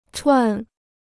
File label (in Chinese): "串", Chinese; 串 (chuàn); to string together; to skewer